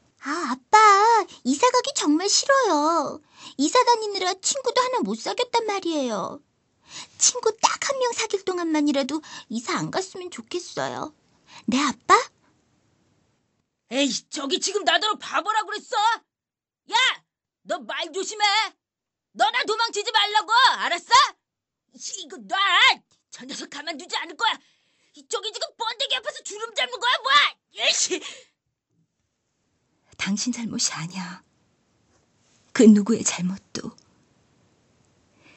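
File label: Korean female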